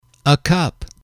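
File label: "a cup", English, male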